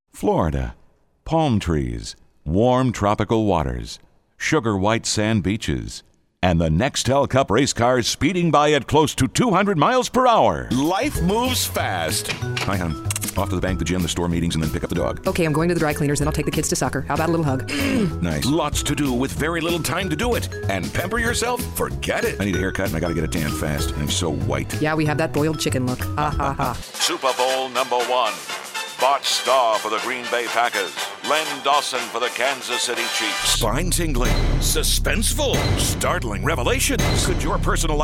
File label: vocal expressions